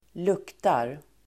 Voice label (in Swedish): "luktar", Swedish